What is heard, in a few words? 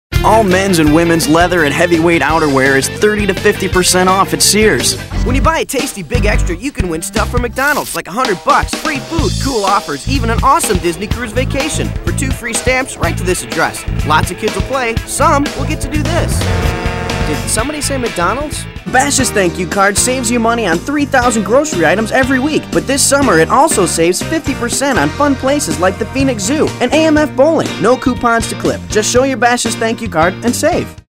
Non-Announcer